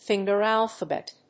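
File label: "finger alphabet", English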